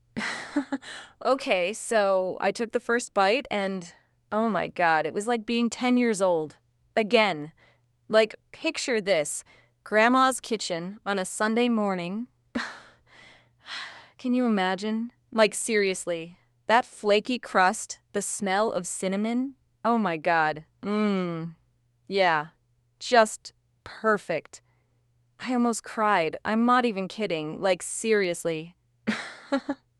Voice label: Leah Happy; orpheus-fastapi